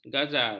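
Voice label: "ghazal", Malay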